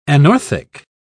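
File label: "anorthic", British English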